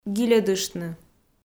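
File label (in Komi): "гильӧдыштны", Komi, female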